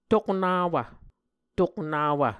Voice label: "toknawv", muscogee